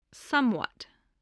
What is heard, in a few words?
"somewhat", English voice